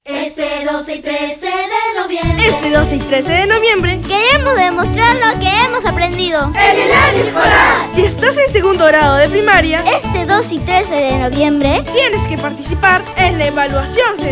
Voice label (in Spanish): Spot Radial